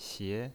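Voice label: "xie", pinyin voice, second tone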